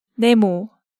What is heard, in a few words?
"Nemo", Korean